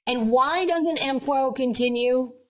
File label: Voice Acting, es